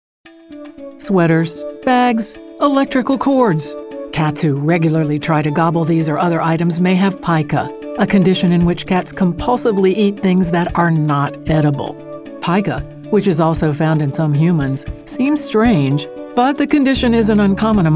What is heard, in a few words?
Corporate